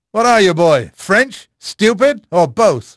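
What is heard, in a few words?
English male; Pirates Of The Caribbean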